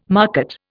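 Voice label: "market", Indian English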